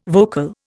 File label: "vocal", Indian